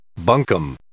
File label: "bunkum", English